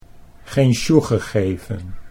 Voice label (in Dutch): "geen sjoege gegeven", Dutch